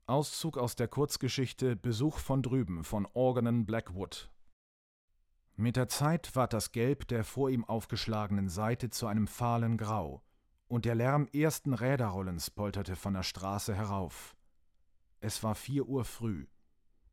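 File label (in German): Hörbuch Grusel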